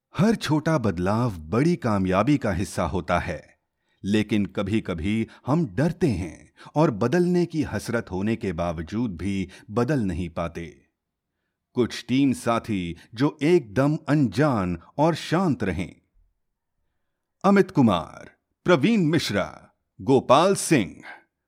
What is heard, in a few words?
Hindi Narration